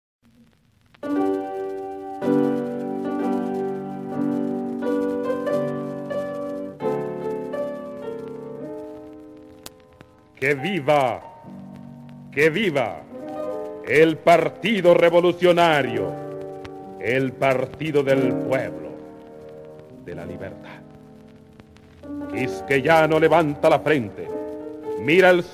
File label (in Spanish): Himno version Narrada; Himno PRD